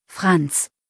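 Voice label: "Franz", German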